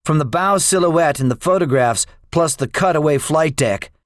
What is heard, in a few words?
debriefing, english; War Thunder